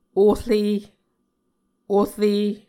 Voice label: "ore", Muscogee

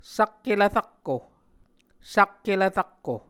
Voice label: "sakkelv rakko", Muscogee

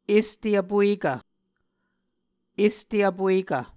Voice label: "este vpuekv", Muscogee

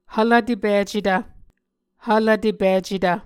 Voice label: "hvlvtepicetv", Muscogee

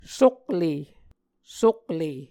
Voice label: "sokle", Muscogee

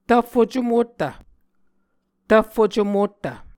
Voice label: "tvffo-comottv", muscogee; Mvskoke Words with Repeated Consonants; A Dictionary of Creek/Muskogee; SIL International

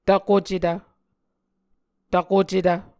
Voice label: "tvkocetv", muscogee

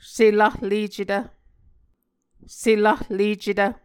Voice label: "selahlecetv", Muscogee

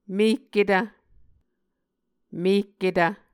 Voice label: "mekketv", Muscogee; Mvskoke Words with Repeated Consonants; A Dictionary of Creek/Muskogee; SIL International

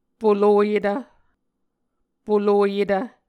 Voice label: "poloyetv", Muscogee

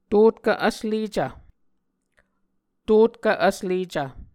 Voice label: "totkv-vslecv", muscogee

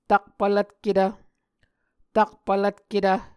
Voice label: "takpvlvtketv", Muscogee

